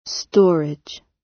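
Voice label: "storage", British English; Design and innovation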